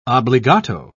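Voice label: "obligato", British English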